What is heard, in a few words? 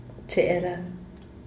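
"cheela", esposo, Zapotec, Díaz Ordaz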